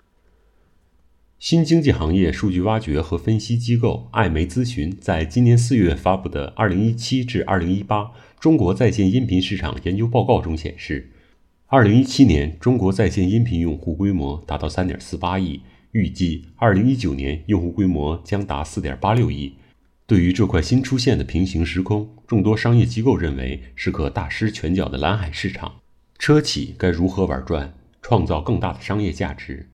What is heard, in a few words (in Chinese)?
播报